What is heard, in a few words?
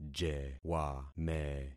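"jewame", concatenated syllables; Affixing experiment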